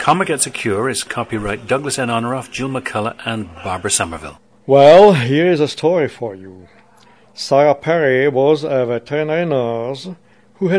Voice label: czech

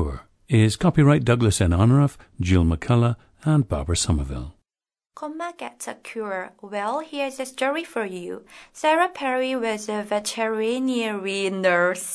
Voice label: south korea

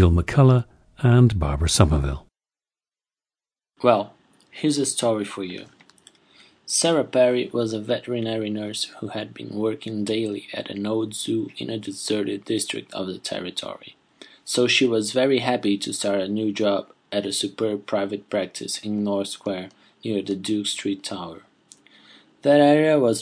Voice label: brazil, dialects

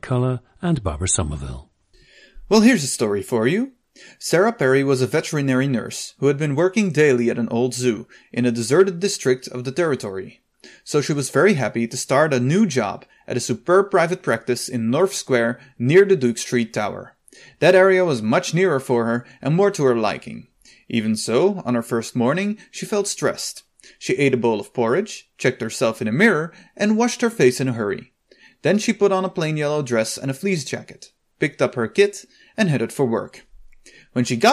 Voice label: belgium, dialects

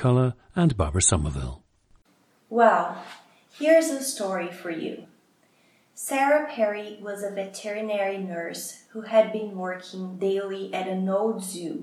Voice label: brazil, dialects

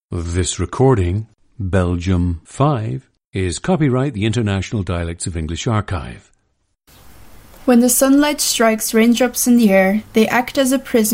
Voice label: belgium, dialects; an 18-year-old woman from Kortrijk and Harelbeke; International Dialects of English Archive

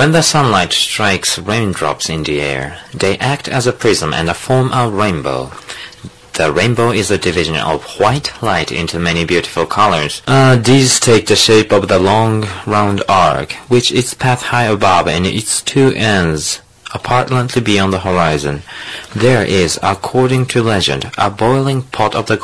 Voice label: japan

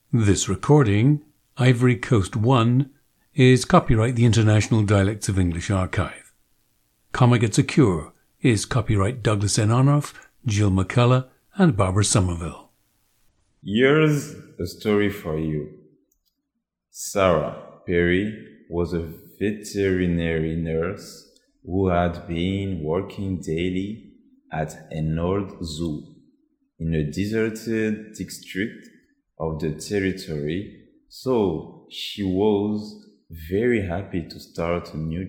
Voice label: Ivory Coast, International Dialects of English; Ivory Coast 1; a 24-year-old man from Abidjan

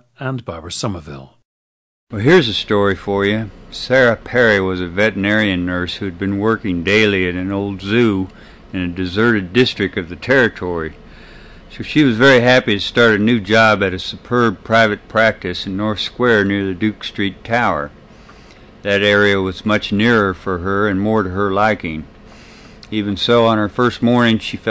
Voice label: missouri, dialects

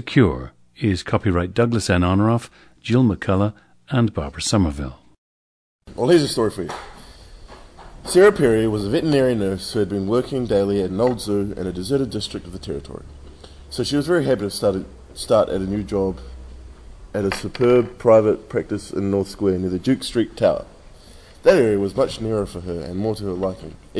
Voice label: New Zealand

